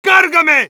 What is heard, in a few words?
activate charge, es; Heavy